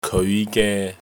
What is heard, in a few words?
"keoi5 ge3", cantonese